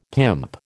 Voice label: "pimp", American